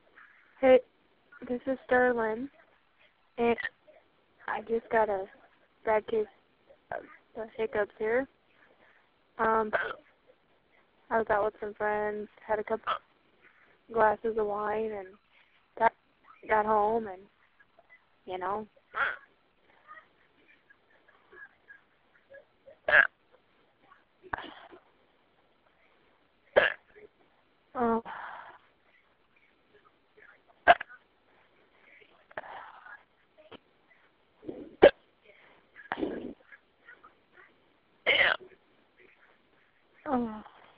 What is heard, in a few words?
phone msg